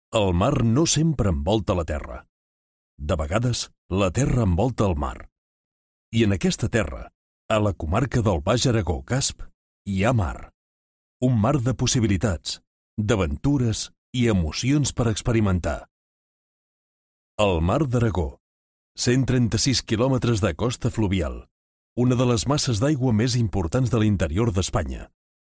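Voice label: Catalan, Documentary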